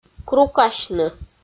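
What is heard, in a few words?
"крукасьны", Komi, female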